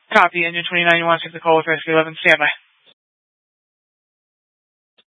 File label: Fire Dispatch; K8 - FDCOM 8 - South; H- Scottsdale